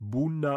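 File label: "buna", Romansh Sursilvan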